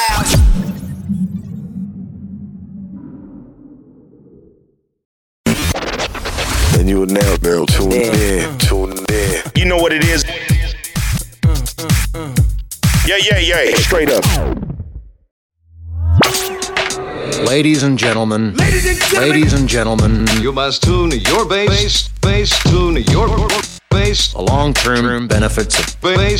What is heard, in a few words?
radio sweepers jingles